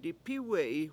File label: i fall over, Washo; dipIwe7i